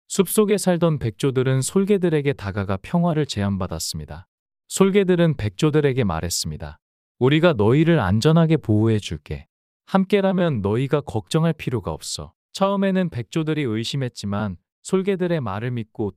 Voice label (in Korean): 이야기 남자; 솔개들과 백조들